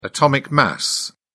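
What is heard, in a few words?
"atomic mass", English, uk British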